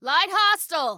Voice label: Warn Light, Female